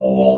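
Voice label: "hole", robot voice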